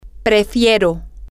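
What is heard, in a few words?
I prefer, Spanish, female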